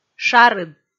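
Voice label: "шарыд", Komi, female; kpv audio dict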